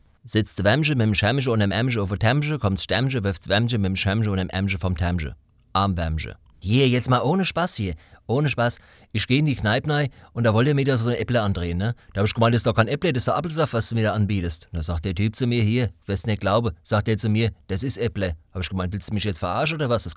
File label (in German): Dialekte